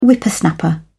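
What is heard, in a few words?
"whippersnapper", British English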